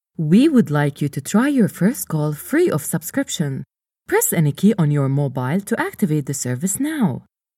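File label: Arabic, female, English IVR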